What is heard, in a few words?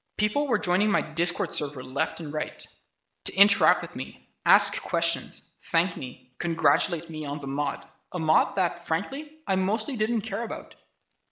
voice, narration